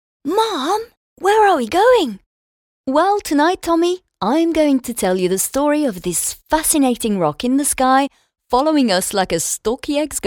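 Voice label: French, female, accented English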